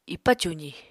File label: "ipa cuzi", Apatani